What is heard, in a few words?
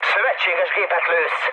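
player friendly fire, mood med, Hungarian; speech; War Thunder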